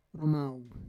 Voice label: "romaw", Roon